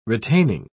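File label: "retaining", English, British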